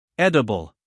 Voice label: "edible", us male; everyone-can-use-english

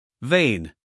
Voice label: "vein", us male; 1000 hours

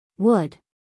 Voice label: "wood", us female; 1000 hours

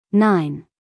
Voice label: "nine", US English, female

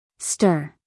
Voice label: "stir", us female

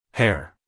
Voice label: "hair", us male

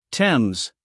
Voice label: "thames", US English, male; everyone-can-use-english

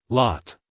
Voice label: "lot", US, male; 1000 hours

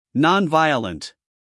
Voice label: "nonviolent", US male

